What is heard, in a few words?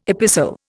"epistle", Indian